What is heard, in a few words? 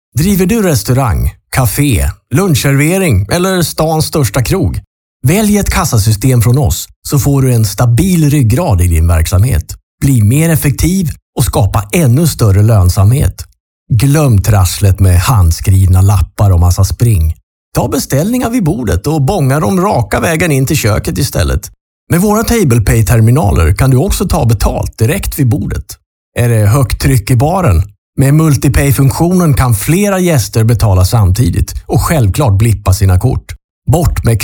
Swedish male